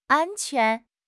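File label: "an1 quan2", Mandarin Chinese; HSK; HSK4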